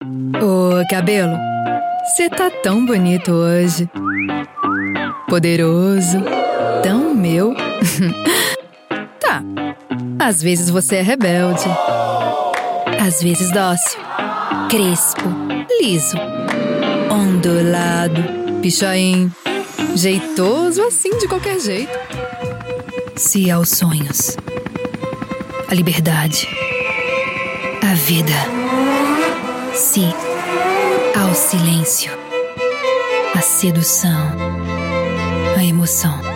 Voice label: brazilian voice commercial